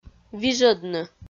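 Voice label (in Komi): "вижӧдны", Komi, female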